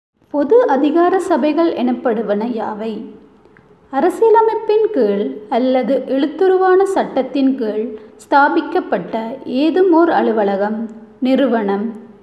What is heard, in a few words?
Tamil